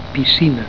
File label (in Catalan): "piscina", Catalan